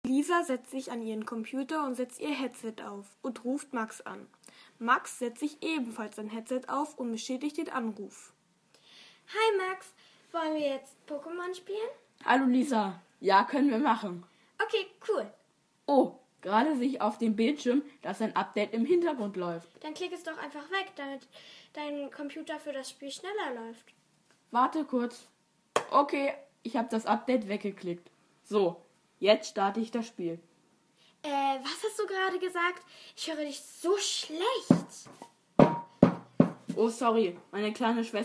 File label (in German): Hoerspiel; Lisa Max; Siemens Stiftung; Inklusive Lernumgebungen von den Sinnen zum Messen